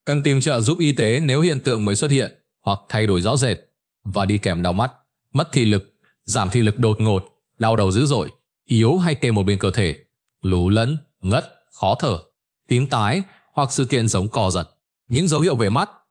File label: narration; Vi Sao Anh Nang Co The Khien Ban Hat Hoi; StickerMan